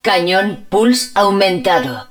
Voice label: hud pulse cannon augmented, Spanish